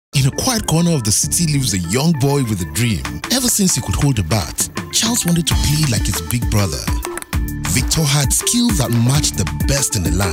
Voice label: African commercial